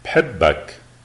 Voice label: i love you lebanese